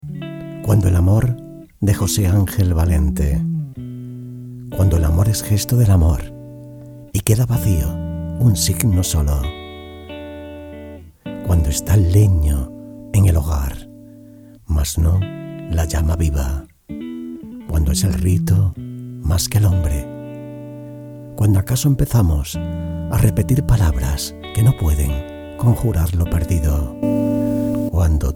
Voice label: voice over spanish